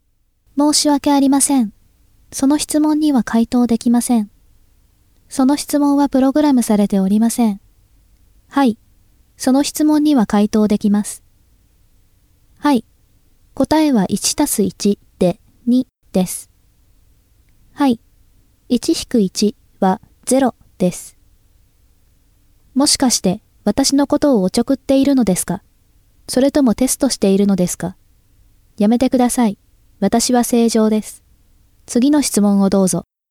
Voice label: robot